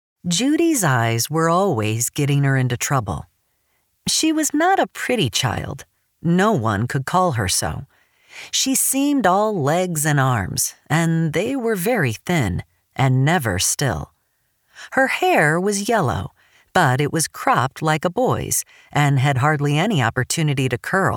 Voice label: Audiobook Sample; Runaway Darling